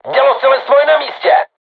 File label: voice message art charged, crew tanks czech